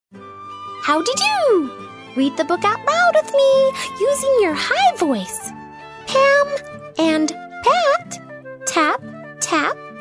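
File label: character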